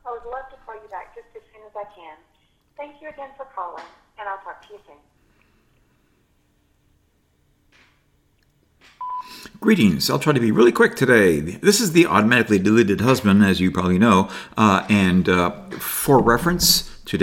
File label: Voicemail